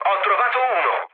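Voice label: Italian player killed enemy mood low, speech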